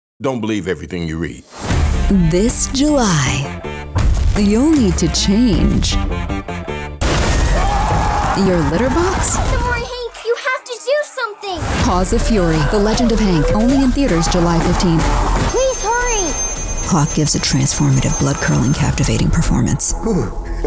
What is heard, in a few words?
Trailer